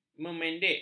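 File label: "memendek", Malay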